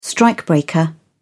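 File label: "strikebreaker", English, British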